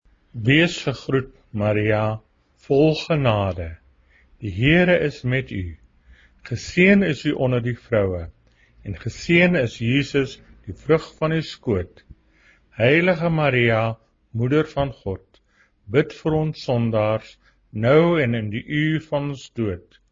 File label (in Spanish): ave maria africaans normal